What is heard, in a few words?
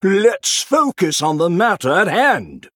Male Human Emote Response; Antonidas; Jaina